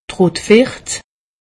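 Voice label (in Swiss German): "rotsucht", Alsatian; medecine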